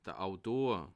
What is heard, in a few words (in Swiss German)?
"autor", alsace